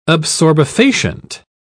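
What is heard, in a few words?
"absorbefacient", British English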